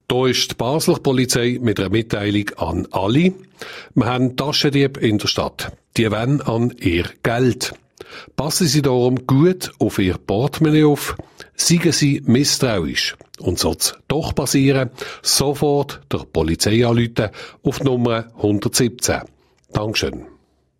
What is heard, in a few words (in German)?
durchsage taschendiebe